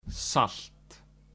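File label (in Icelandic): "salt", Icelandic; matarhefti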